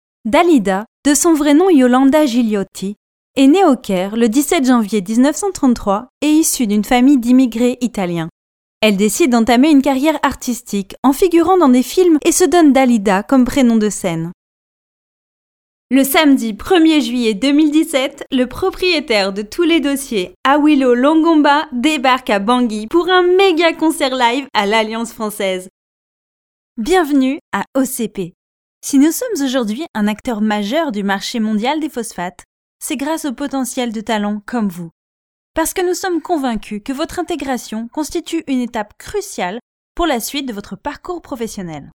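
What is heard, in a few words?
FR F, French, female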